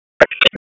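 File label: Police Downtown District Tactical 1, p25; Raleigh; wake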